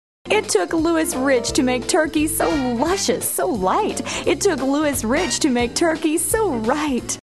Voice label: Commercial